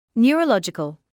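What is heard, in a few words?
"neurological", British English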